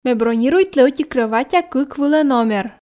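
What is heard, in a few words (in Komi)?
ме бронируйтлі ӧти крӧватя кык вылӧ номер, Komi, female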